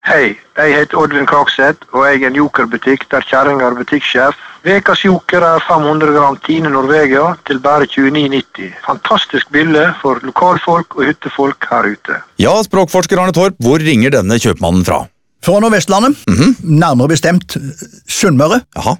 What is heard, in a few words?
radiospots